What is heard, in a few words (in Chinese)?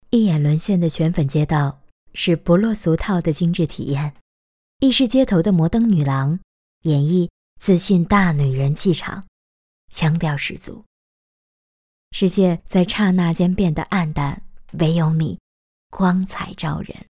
视频干音; 蒙娜丽莎15秒